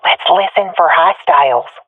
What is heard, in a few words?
request quiet, swat fem; vo; agents; Source Model Packs; Counter Strike Model Pack; CS2